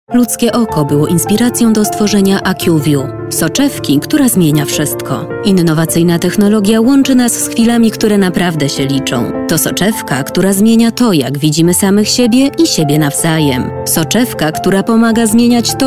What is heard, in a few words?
commercial